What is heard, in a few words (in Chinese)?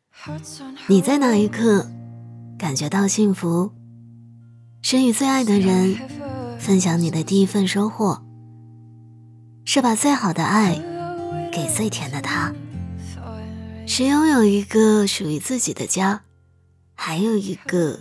温馨自然